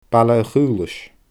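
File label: "Baile a Chaolais", Scottish Gaelic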